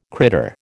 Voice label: "critter", American